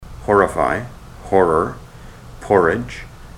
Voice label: horrify horror

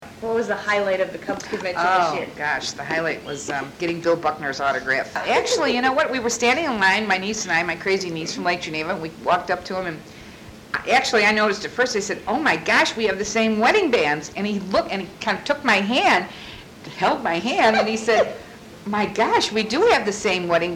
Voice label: chicago, dialects; speech

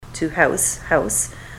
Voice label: to house house, ontario

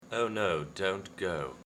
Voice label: oh no dont go, rp

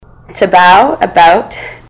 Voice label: to bow about, ontario

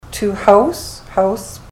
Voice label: to house house, ontario; mouth set

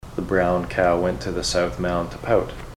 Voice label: the brown cow, ontario; mouth set

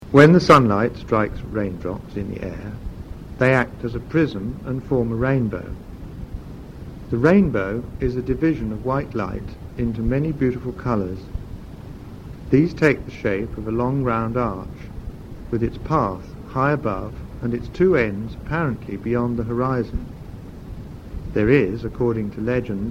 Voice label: england, rp, dialects

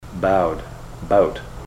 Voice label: bowed bout, ontario; mouth set

